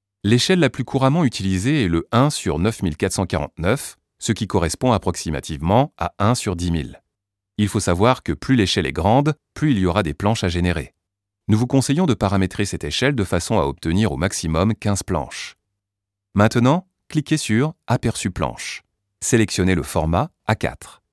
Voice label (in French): ELEARN Voix Off